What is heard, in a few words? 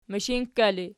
"mishinkka", Archi